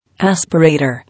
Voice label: "Aspirator", English